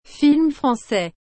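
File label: "Film francais", French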